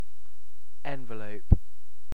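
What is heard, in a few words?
"envelope", British English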